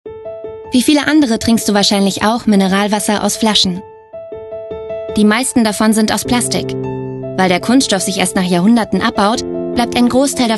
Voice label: Werbung; Share

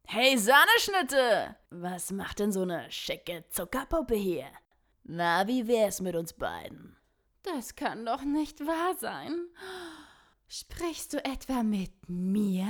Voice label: Trickstimme Fiesbacke und Etepetete-Girl

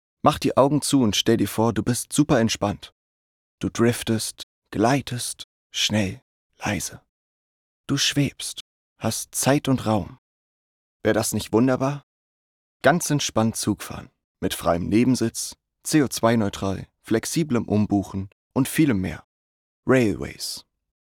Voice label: Werbung; Railways

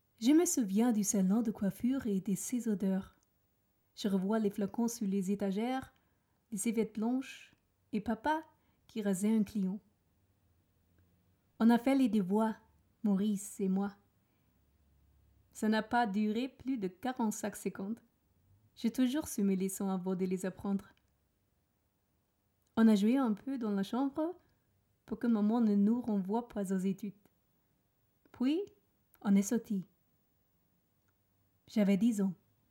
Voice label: Stimmprobe Französisch

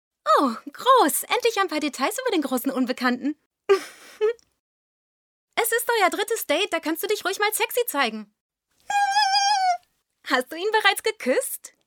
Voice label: Rolle Sitcom jung; Synchron Sitcom

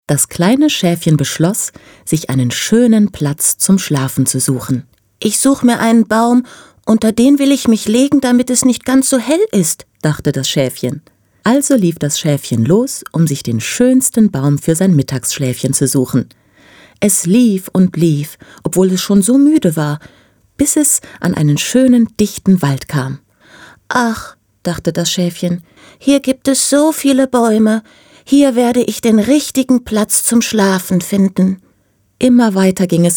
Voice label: Kinder Erzaehlung

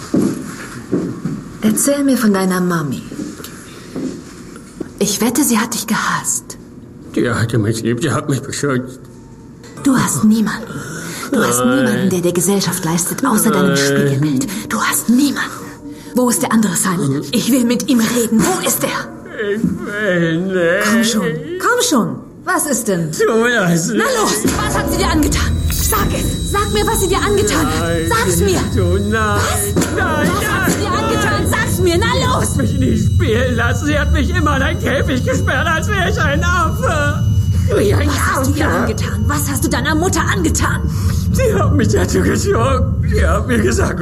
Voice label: Synchron Verhör; NOMIS; Rachel und Simon

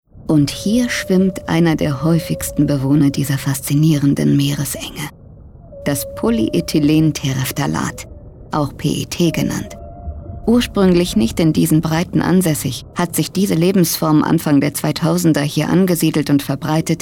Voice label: DOKU MEERESBEWOHNER

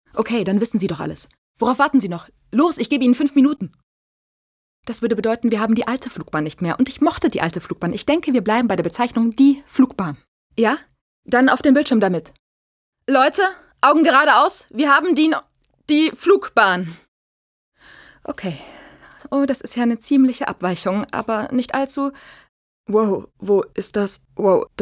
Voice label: Synchron angespannt

